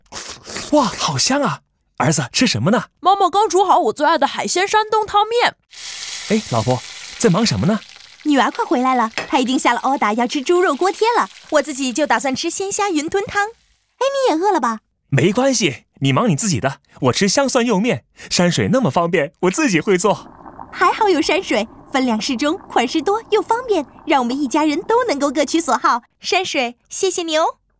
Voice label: Noodle TVC

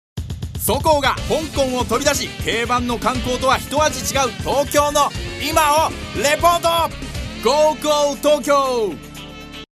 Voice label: HYPER VO; gogotokyo

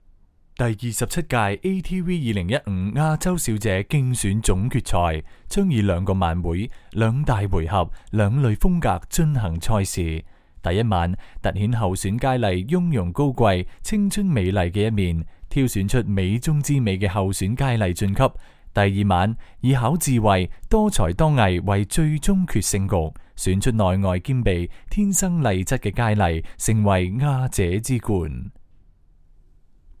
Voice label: M Cantonese

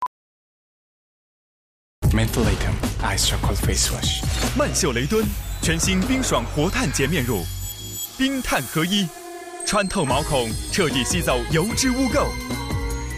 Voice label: TVC; mentholatum

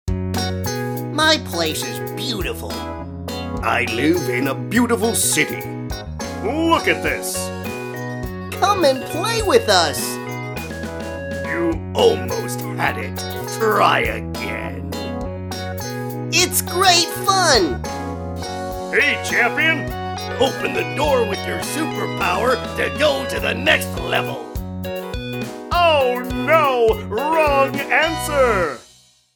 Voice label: Kids Toy Corporate

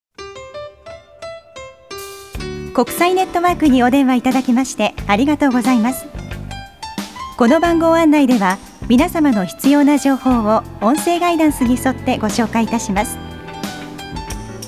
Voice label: Low-Tone (Telehone Guidance)

